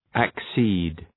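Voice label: "accede", English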